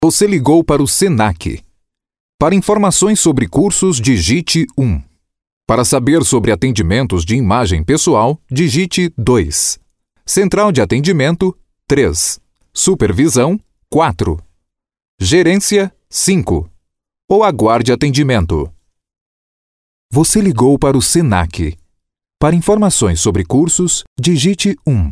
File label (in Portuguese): ura locutor; gravacao de ura